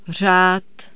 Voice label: Czech "order"

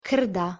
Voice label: "krda" Bosnian Croatian Serbian

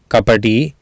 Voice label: "kapaTii", Sanskrit

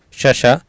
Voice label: "SaSa", Sanskrit

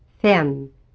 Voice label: "fem", Swedish

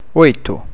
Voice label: "oito", Portuguese

